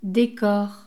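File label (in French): "decor", French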